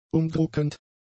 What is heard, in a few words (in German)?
"umdruckend", German; adjectives